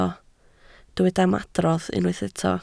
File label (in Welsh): "ymadrodd", Welsh